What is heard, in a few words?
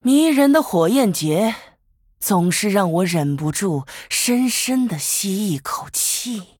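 Female, Chinese; FIRE FESTIVAL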